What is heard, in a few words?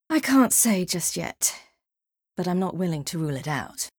Female; Skyrim Special Edition; Dawnguard Beleval